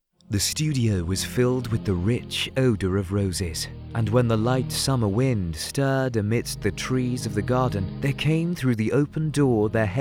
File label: Audiobook UK